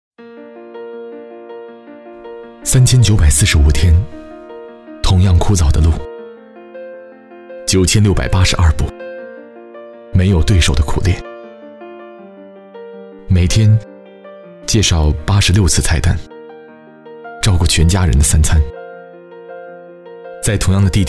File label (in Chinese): 国语男, 广告, 素人正能量; UC浏览器; 热爱你的另一个自己